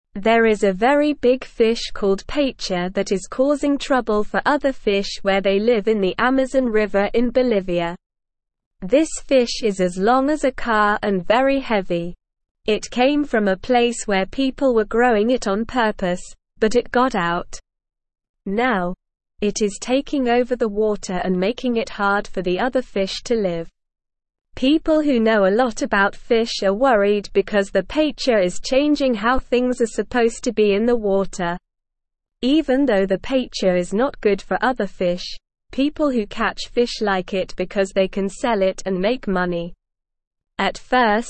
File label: Beginner SLOW Reading, English; English Newsroom